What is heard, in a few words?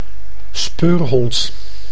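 "speurhond", Dutch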